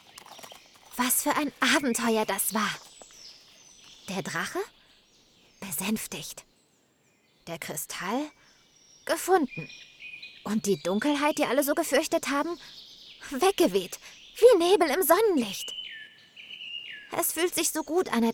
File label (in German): Monolog Fabelwesen, Hörspiel Monolog Fabelwesen, Audio Drama, German; Trick, Children's V